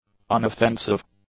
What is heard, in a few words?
"unoffensive", English; adjectives